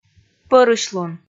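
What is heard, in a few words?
"пӧрысьлун", Komi, female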